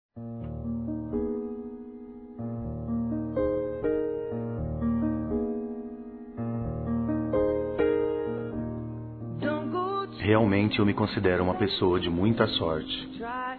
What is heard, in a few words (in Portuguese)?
Esposa Masculino; Telemensagens; Dia Dos namorados; Telemensagens 2017